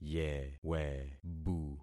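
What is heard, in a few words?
"yewebu", concatenated syllables; Affixing experiment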